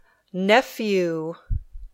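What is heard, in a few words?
"nephew", English; sobrino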